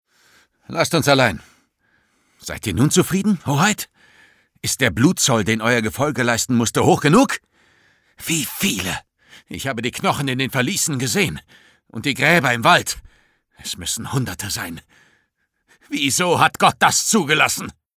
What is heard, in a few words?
male german